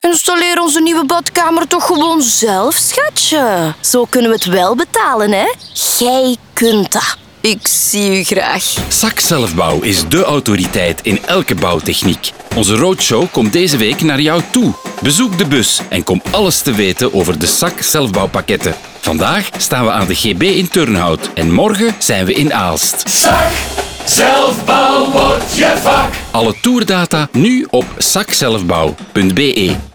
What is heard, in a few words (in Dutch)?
vlaamse stem actrice reclamespot